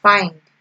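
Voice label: "find", Nigerian Pidgin, female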